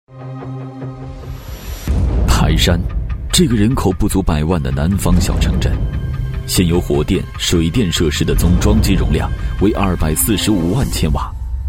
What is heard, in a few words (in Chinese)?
企业宣传冷静干脆